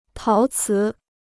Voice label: "陶瓷", Chinese

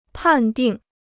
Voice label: "判定", Chinese

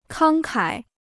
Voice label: "慷慨", Chinese

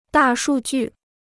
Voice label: "大数据", Chinese

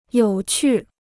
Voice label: "有趣", Chinese; yǒu qù; interesting; fascinating

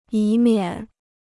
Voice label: "以免", Chinese

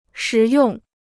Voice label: "实用", Chinese

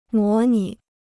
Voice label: "模拟", Chinese

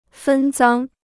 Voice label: "分赃", Chinese

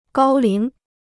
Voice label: "高龄", Chinese

